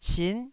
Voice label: "sin9", Taiwanese